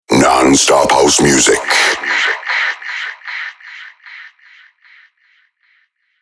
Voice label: sweeper non stop house music; killerintrosnet